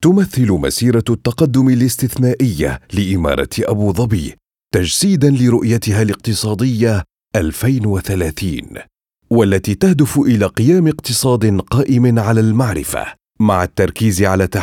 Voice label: Arabic voice over